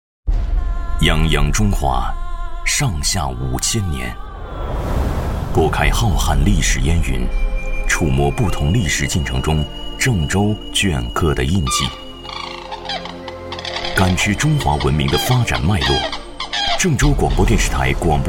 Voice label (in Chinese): 男 纪录片片头; 男B12-高端大气; 质感磁性; 《行走郑州 读懂最早中国》